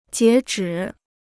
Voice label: "截止", Chinese

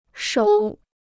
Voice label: "首", Chinese

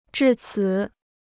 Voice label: "至此", Chinese

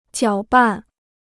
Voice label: "搅拌", Chinese

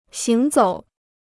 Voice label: "行走", Chinese

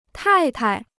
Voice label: "太太", Chinese

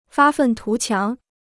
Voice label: "发愤图强", Chinese

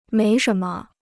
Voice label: "没什么", Chinese

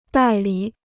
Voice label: "代理", Chinese

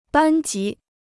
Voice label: "班级", Chinese

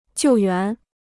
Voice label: "救援", Chinese

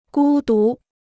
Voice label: "孤独", Chinese

